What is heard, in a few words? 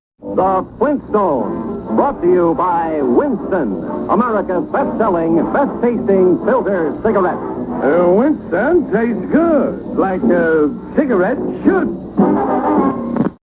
Winston Commercial; Flintstones; OTR Commercials